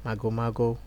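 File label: "magomago", Nigerian Pidgin, male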